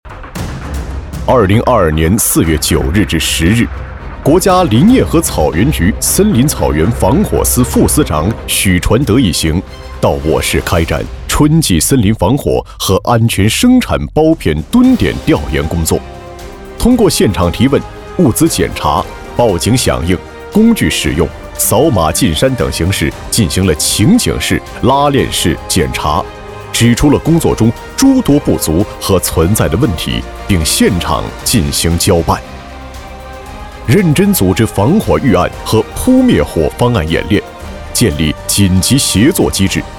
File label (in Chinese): 男 消防专题 立行立改 全面整改